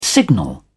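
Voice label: "signal", English, British English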